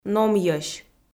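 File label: "номйӧсь", Komi, female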